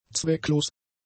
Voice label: "zwecklos", German; adjectives